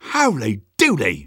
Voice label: Sniper positive vocalization